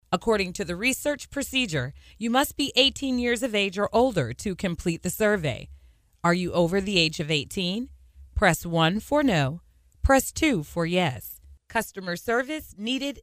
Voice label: women narration

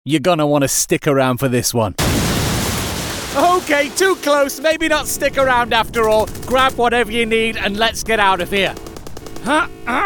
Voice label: british, languages; BRITISH » British, persuasive and appealing, range of accent work from ancient English vampires to grizzled American assassins.; Video Games

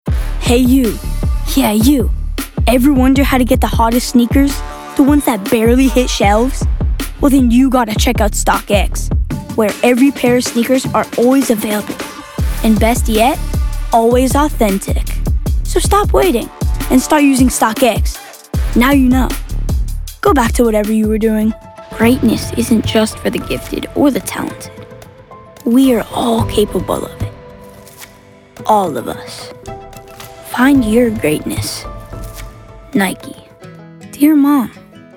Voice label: kids commercial

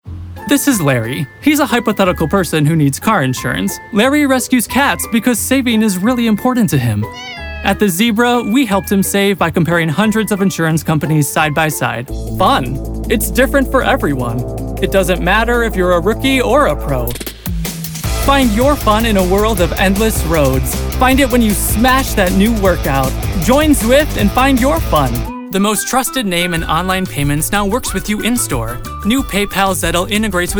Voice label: men commercial

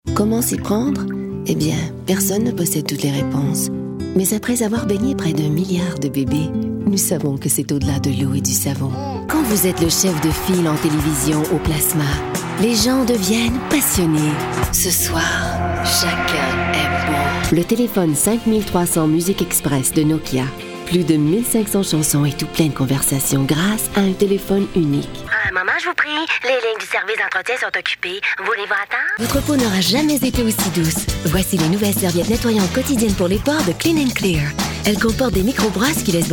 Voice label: french canada commercial; Intimate and airy French Canadian voice.

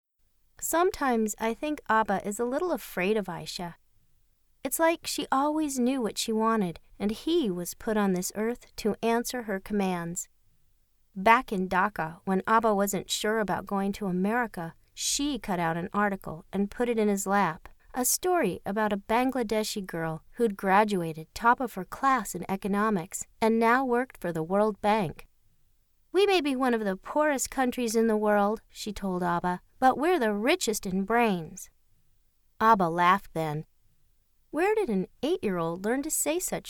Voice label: women audiobooks

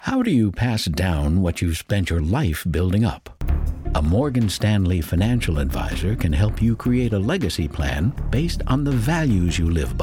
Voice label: men commercial; Deep, rich, and mature.

